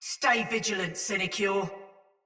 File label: Patrol Ode Soldier Mace Agent Female; Battle; Sinecure Melee